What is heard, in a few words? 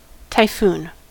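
"typhoon", American English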